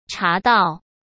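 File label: "茶道", Mandarin Chinese; hsk; chinese-flashcards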